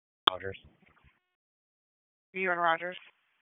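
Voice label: Raleigh Police Southeast District Dispatch; p25; wake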